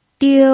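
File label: "diu", Mandarin Chinese, first tone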